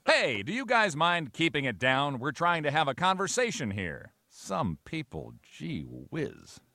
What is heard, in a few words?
conversation; fam guy